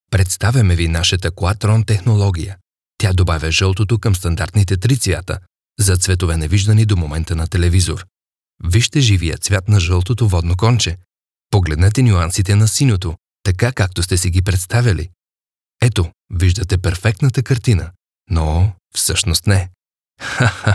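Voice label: male Bulgarian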